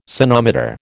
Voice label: "sonometer", American

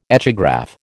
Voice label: "echograph", American

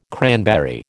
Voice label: "cranberry", American

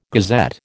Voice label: "gazette", American

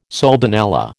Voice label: "soldanella", American

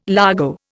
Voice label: "largo", Indian

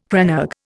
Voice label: "crannog", Indian

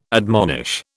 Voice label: "admonish", British

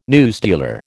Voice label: "newsdealer", American

